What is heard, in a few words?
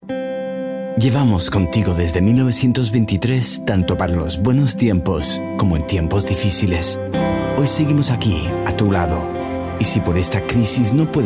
Commercial Spanish Neutral